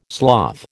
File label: "sloth", American